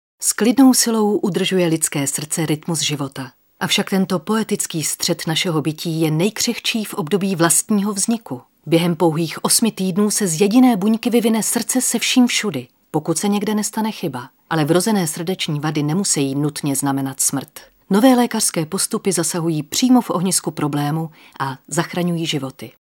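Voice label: Female Czech documentary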